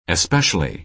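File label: "especially", American English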